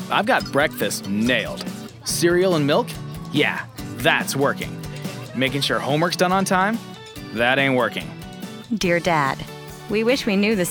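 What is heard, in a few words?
Dairy voice over; Washington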